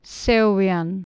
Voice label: "seowian", Anglo Saxon

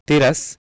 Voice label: "tiras", Sanskrit

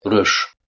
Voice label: "rysc", Anglo Saxon

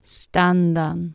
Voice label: "standan", Anglo Saxon

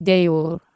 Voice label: "deor", Anglo Saxon

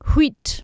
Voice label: "hwit", Anglo Saxon

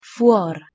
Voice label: "fuwor", Proto-Germanic

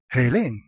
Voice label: "helein" Ancient Greek

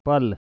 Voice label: "pal", Pashto

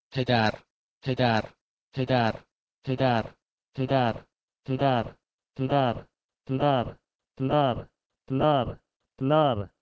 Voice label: Persian pedar to Pashto plar

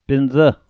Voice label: "pindza", Pashto

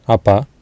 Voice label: "apa", Sanskrit

